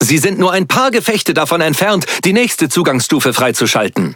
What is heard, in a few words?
Speech Access Level Unlock Few, DE; World Of Warships